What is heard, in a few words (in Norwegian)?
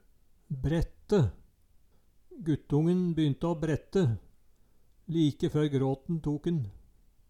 brette, Numedalsmål